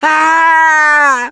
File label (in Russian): Incapacitated Injury, left4dead2 russian, Survivor Voice; Gambler